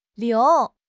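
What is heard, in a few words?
"liu2", Mandarin Chinese; HSK; HSK4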